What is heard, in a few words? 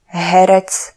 "herec", Slovak; alphabet